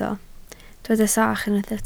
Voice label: "sach", Welsh